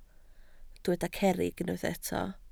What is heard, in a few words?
"cerrig", Welsh